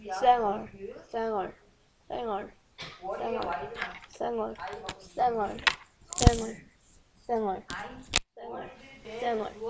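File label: "singer", spoken word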